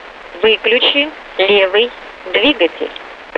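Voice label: Russian speech, Mi-8MT RI65; Messages switch off left engine; DCS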